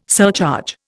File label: "surcharge", Indian